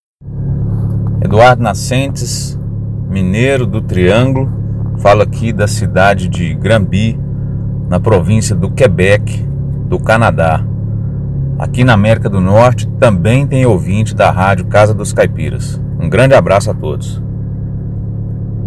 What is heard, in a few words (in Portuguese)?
ouvinte em quebec canada